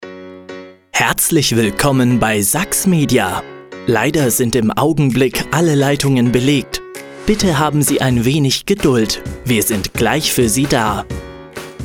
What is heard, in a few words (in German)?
Professionelle Telefonansagen Warteschleife; Sachs Media